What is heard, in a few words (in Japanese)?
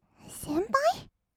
先輩, kawaii voice; ASMR; 二人称